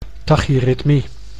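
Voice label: "tachyritmie", Dutch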